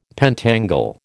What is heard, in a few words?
"pentangle", American